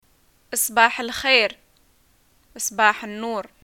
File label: greeting morning, arabic